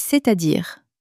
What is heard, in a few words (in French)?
c'est-à-dire, common french words